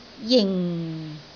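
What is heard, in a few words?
"jing4", Cantonese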